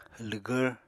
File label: "lwgvr", Apatani